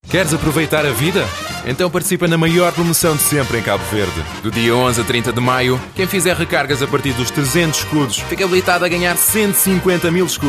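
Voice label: Portuguese; Portuguese, Male, 20s-30s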